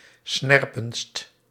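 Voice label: "snerpendst", Dutch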